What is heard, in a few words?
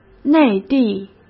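"内地", Mandarin Chinese; chinese flashcards; hsk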